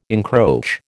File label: "encroach", American